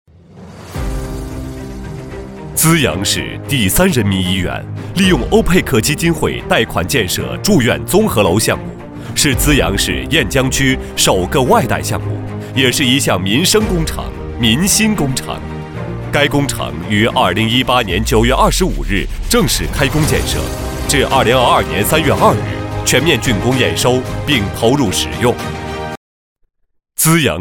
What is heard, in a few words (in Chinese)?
男 专题 资阳市